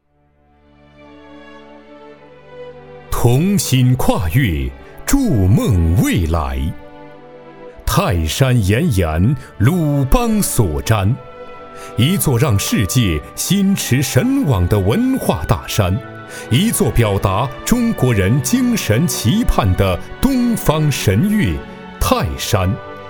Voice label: 男 专题; 泰山浦发

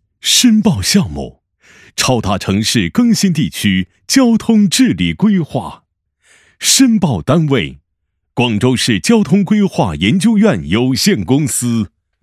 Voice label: 男 专题 工程申报 大气情感

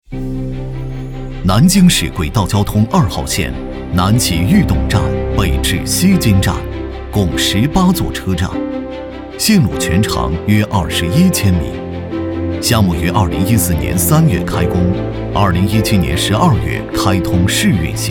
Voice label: 男 专题 工程解说

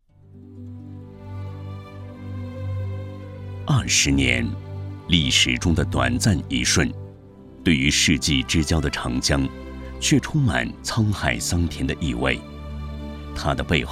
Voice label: 男, 宣传片; 再说长江

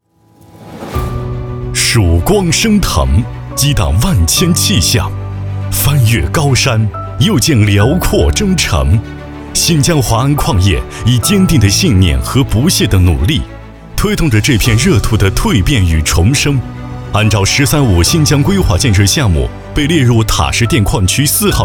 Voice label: 男 专题; 突破

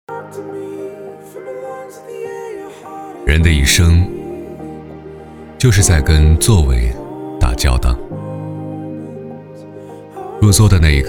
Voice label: 男 广告; 座位

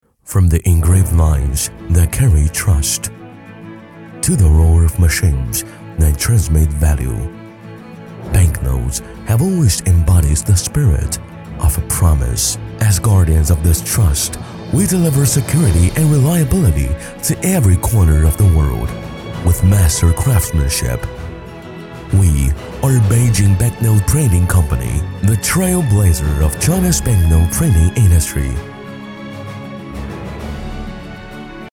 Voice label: 男 英文 宣传片; 北京印钞宣传片

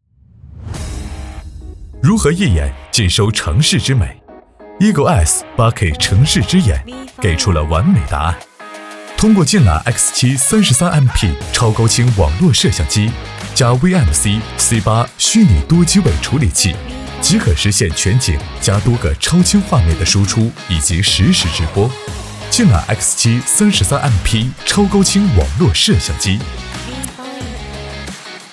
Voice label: 男692 广告; 如何一眼尽览城市之美

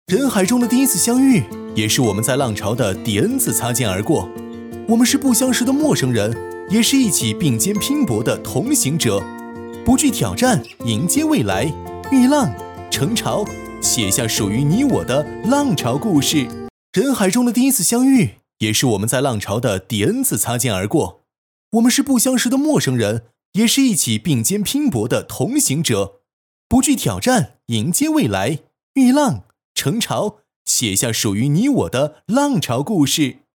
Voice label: 男, 宣传片

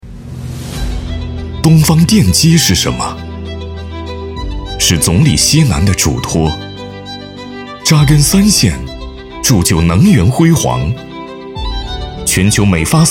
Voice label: 男 宣传

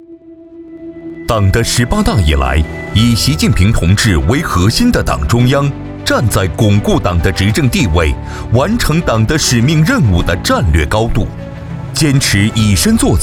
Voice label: 男 专题片 警示

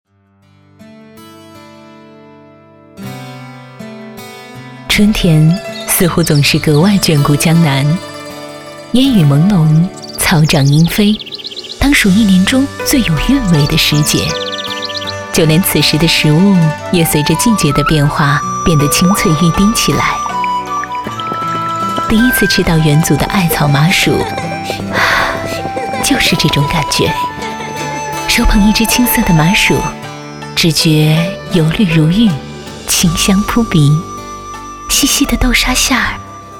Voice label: 女76 专题; 艾草麻薯

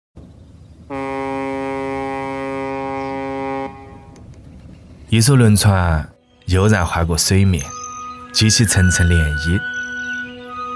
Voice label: 男, 四川方言; 风味人间

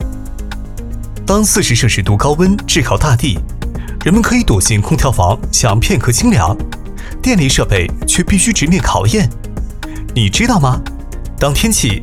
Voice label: 男 自然科技感

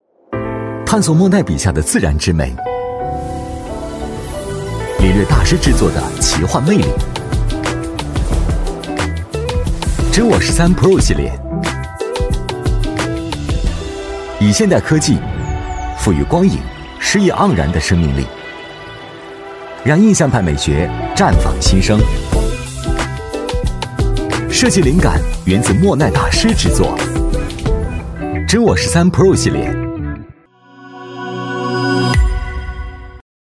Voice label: 男 广告 手机 年轻; 真我13pro手机

